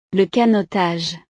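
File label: "le canotage", French; deportes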